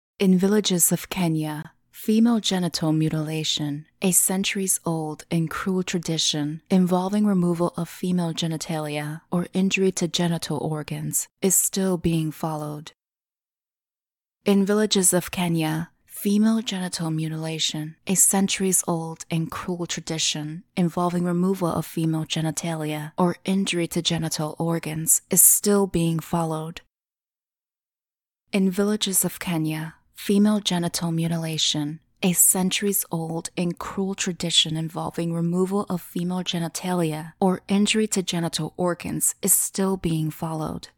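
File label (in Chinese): 外籍女英_外语_美式英语_样音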